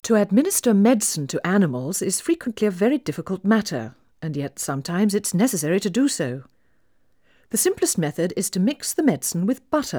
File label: Female speech English Mono output noised, 3bit